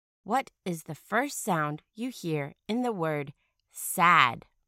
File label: sad initial sound